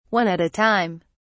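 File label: "one at a time", English, British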